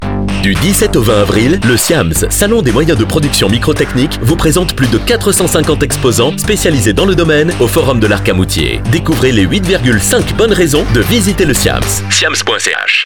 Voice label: SPOT; SIAMS